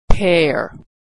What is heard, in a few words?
verb "pair", pronunciation